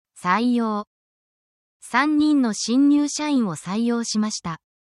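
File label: Japanese word, japanese phrases; essential japanese phrases for your workplace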